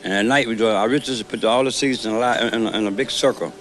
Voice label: and at night we latin put all the seeds in a big circle, Voice gibbrish